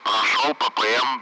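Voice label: Speech, Russian; Allied Flight; passing waypoint; DCS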